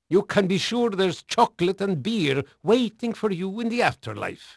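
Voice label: Dutch male, voice; Pirates Of The Caribbean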